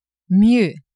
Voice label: "mieux", French; adverbs